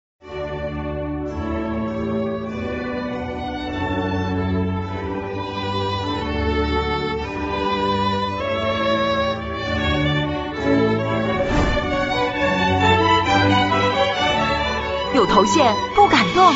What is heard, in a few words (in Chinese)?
广告 品牌形象 大气; 海飞丝